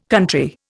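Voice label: "country", Indian English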